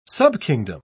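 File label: "subkingdom", British English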